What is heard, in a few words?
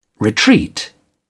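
"retreat", British English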